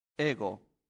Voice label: "ego", Latin; santillana